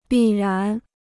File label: "必然", Chinese